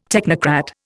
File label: "technocrat", Indian